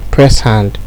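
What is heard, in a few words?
"press hand", Nigerian Pidgin, male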